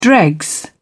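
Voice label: "dregs", British English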